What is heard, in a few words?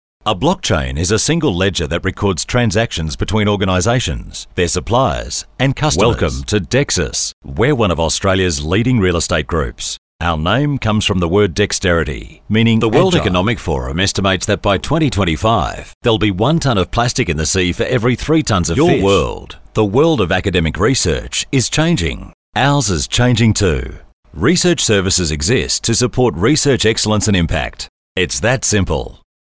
Corporate